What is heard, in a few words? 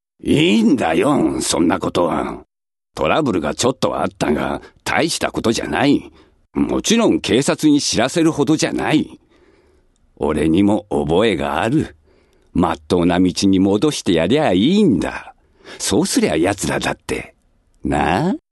male voice